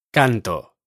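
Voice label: "canto", Spanish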